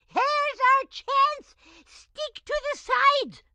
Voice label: grandma chopper hold to side tutorial; Blind Drive

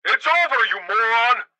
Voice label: police megaphone; Blind Drive

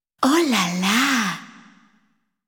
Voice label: Oh, Lala..., jingles

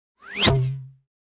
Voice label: comedy accent; NCH Library

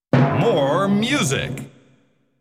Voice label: More Music! (one Liner)

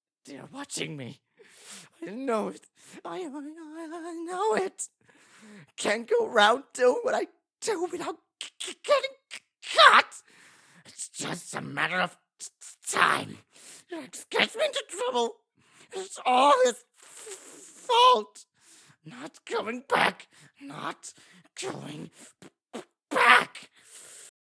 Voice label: mutter; Pitch Black - A Detective's Demise

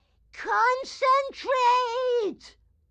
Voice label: grandma chopper annoyed; Blind Drive

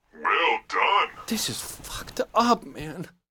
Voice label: phone good; Blind Drive

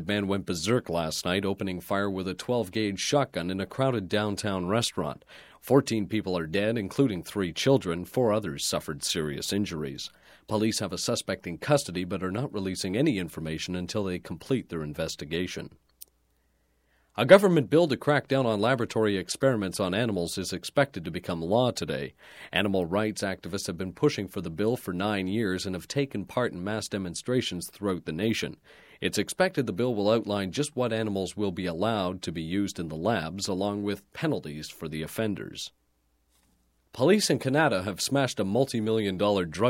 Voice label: Broadcast, News Generic Bad News Report, Radio; Announcer; Sound Ideas; Series 2000

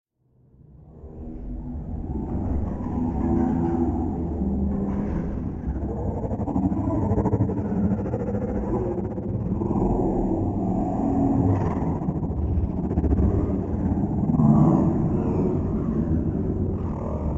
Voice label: Alien Talking - Big Reverb Long; Hollywood Edge; sound designer's toolkit v2

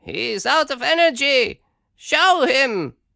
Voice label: Vulnerable Commentator; AudioWizards

